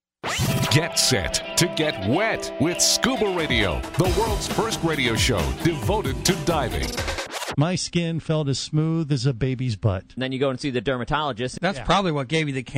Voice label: Promo